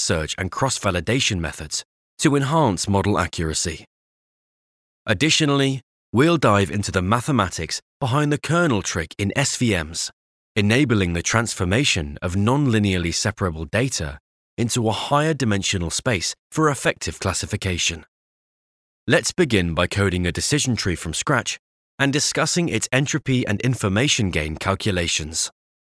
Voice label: Machine Learning British